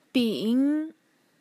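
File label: "bing", Mandarin Chinese, tone 3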